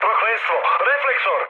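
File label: Serbian searchlite mood med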